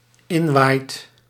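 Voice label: "inwaait", Dutch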